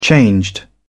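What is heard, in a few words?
"changed", British English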